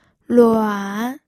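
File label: "luan", third tone, pinyin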